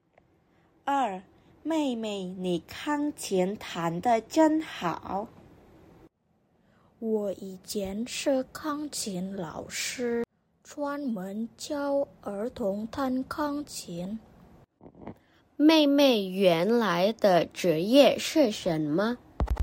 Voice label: Mandarin; mandarin placement test hsk4